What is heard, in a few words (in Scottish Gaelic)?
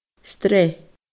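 "sreath", Scottish Gaelic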